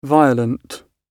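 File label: "violent", British English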